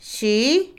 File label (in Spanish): hoja, Otomí, mujer; INALI